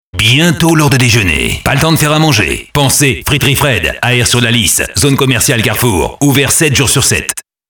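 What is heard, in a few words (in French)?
spot, spots radio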